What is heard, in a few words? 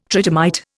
"tridymite", Indian